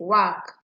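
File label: "wak", Nigerian Pidgin, female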